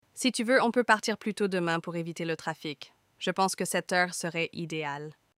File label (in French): Quebec Trafic